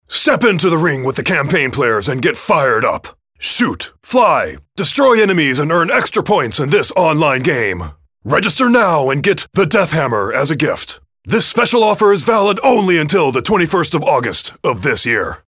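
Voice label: English male Rage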